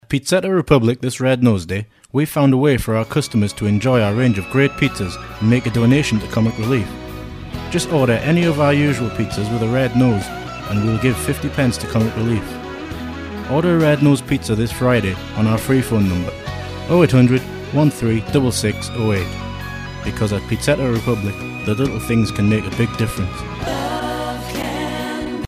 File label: ad; bfm jingles ads RSL 1995